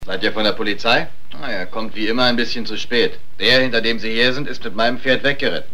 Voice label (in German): Hörprobe des deutschen Synchronschauspielers; Lex Barker